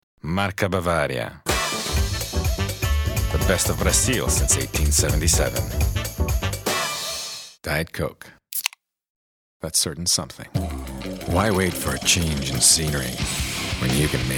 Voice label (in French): voix english